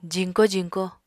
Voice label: "jiñko jiñko", Apatani

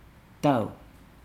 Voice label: "read", Nukuoro

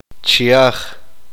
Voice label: uspanteko

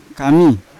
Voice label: "kami" egg, Yawa

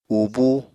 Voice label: "ubúu", Apatani

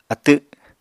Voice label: "atw", Apatani

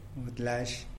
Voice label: "budlax", tlacochahuaya; turkey; Tlacochahuaya Zapotec Talking Dictionary

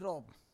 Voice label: "rob", Roon

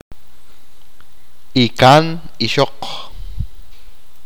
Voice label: "tia la hermana mayor de su padre", achi

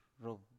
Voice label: "rob", Biak

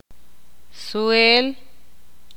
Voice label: "limpiar", Ixil

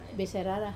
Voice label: "sairara" fragrant, Wamesa

